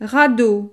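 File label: "radeau", French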